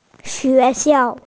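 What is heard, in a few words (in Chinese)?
"xue2 xiao4" Mandarin Chinese, female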